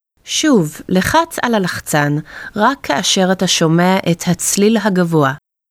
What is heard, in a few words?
Press Auditory Target, hebrew